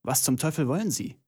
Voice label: german voice; wyrmsun